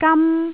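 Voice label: "gam1", Jyutping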